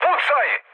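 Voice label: Serbian attack position mood med, speech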